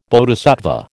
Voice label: "Bodhisattva", American